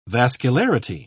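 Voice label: "vascularity", British English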